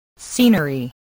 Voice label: "scenery", english spelling

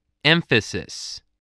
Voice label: "emphasis", spelling

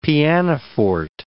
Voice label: "pianoforte", English

